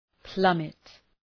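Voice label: "plummet", English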